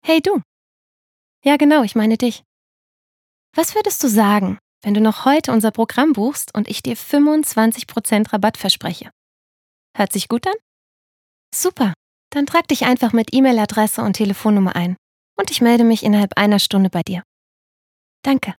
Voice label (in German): Deutsch